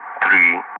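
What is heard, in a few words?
Speech, English, Digits, 3 continue; Ka-50; Wingman; DCS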